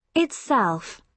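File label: "itself", British English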